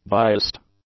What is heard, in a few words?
"biased", English; adjectives